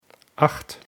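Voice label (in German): "acht", German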